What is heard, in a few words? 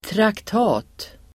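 "traktat", Swedish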